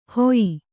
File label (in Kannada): "ಹೊಯ್ಯಿ", Kannada